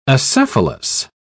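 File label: "acephalous", British English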